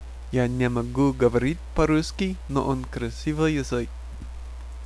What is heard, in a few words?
Russian